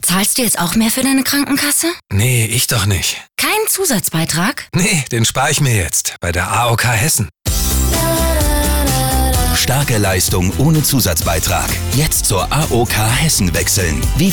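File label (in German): Werbung Variabel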